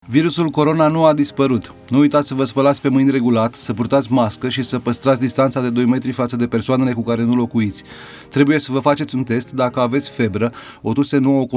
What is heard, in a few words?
Romanian